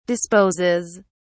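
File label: "disposes", British English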